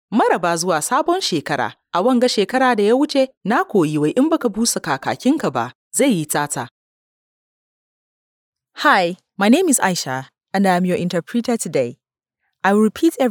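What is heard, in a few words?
Hausa, voice over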